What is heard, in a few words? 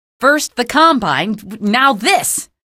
alert antlions, female